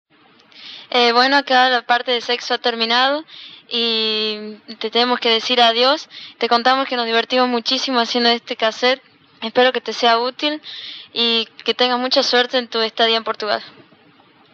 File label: Conclusion spanish